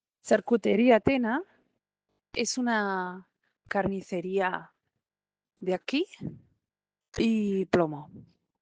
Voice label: Voice message